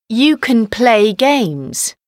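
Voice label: "play games", English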